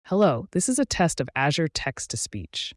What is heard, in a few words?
azure speech